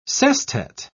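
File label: "sestet", British English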